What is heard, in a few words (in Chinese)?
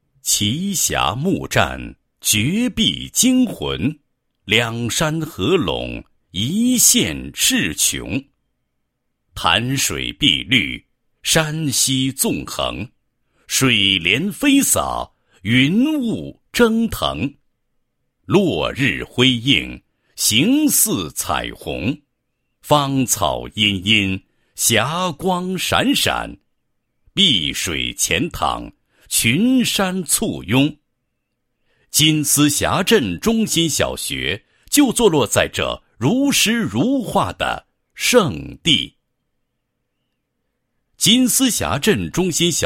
男 学校专题 宣传介绍; 金丝峡镇中心小学